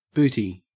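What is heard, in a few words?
"booty", Australian English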